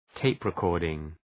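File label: "tape recording", English; (Ουσιαστικό)● ταινία ηχογράφησης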